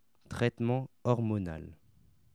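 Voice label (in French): "traitement hormonal", French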